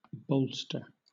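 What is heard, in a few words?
"bolster", British English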